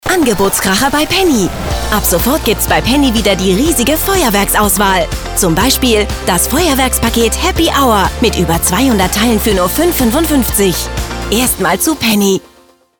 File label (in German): Werbung energiegeladen flott Stimmprobe, German; Werbe-Demo: flott, energiegeladen; Commercial (Werbung); Penny